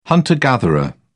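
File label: "hunter-gatherer", English, British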